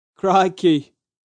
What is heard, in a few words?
"Crikey", Male; Exclamation Sound Effects; VoiceBox; Twistedtracks